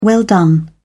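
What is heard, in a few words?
"well done", British English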